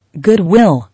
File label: "Goodwill", English